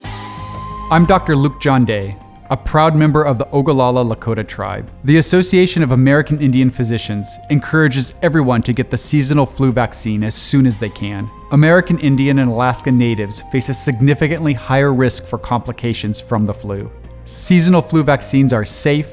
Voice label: Radio; AAIP SF Radio Flu